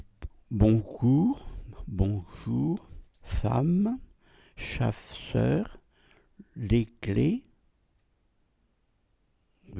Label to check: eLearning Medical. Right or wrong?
Wrong